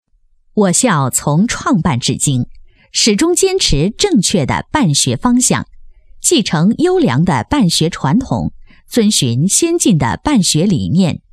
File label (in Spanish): locutora china chinese voice over; Locutoras de China